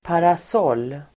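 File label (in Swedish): "parasoll", Swedish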